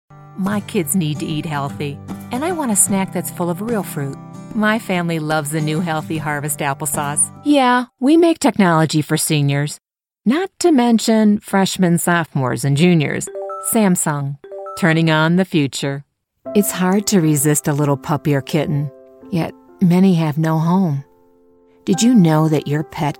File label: english american commercial